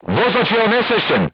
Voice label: voice message driver contused, crew tanks serbian